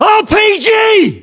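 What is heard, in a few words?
threat rpg, British; battlechatter; localized english; uk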